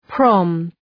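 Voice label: "prom", English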